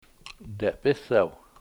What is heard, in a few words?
"dep$isew" his ear, Washo